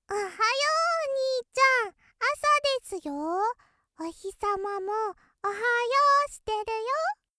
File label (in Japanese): ロリ おはよう